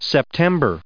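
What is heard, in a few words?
"September", English, us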